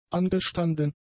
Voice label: "angestanden", German; adjectives